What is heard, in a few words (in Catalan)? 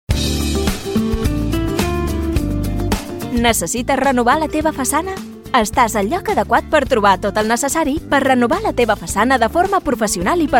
PROMO FACHADAS CATALAN; pinturas andalucia